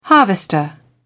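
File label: "harvester", British English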